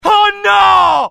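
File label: fear grenade, IT; mohaa; dialogue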